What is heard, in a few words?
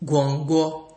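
"gwongwo", Nigerian Pidgin, male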